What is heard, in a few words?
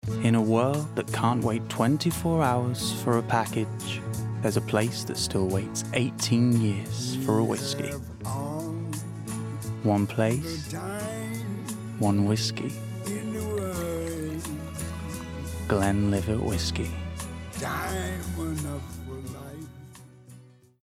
Smooth cool aspirational; Glenlivet Whiskey